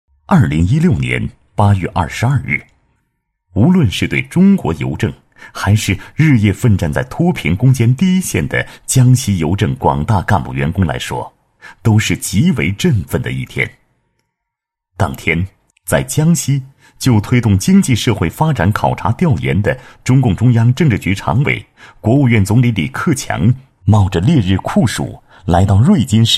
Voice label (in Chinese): 男, 人物; 中国邮政集团公司江西省分公司副总经理